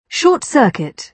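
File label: "short circuit", British English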